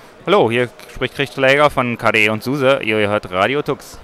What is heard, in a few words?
promo